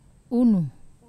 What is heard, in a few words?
"unu", Wamesa